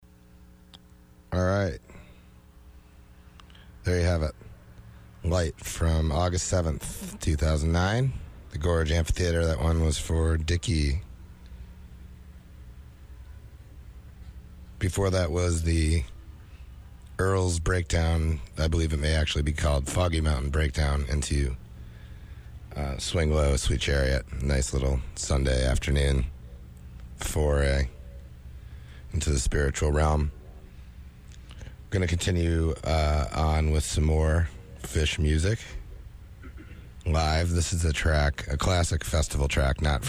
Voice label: radio banter; Festival 8